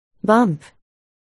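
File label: "bump", spoken word, articulation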